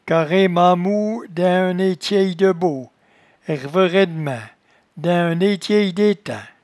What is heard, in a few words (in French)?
"caremneatmoue", patois jura